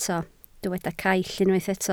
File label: "caill", Welsh